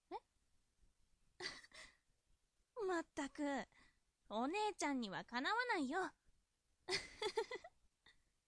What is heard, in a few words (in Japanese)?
josei